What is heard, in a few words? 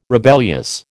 "rebellious", American